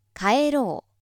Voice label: female